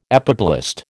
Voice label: "epiblast", American